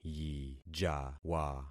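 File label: "yijawa", concatenated syllables; Affixing experiment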